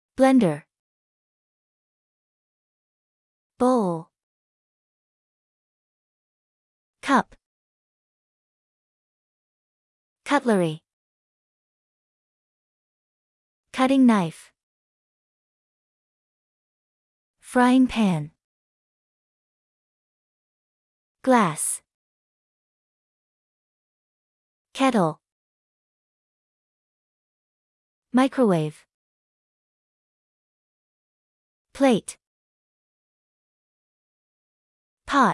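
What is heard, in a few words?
American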